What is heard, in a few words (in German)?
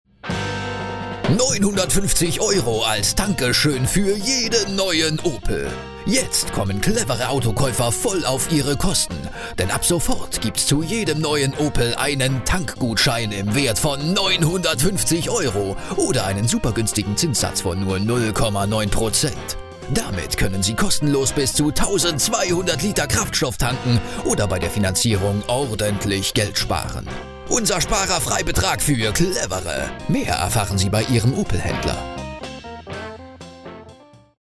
Werbung